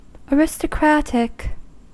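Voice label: "aristocratic", US English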